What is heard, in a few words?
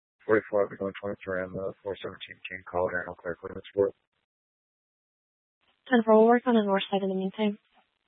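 Tempe Police Department, scanner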